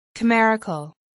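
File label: "chimerical", English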